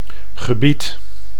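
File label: "gebied", Dutch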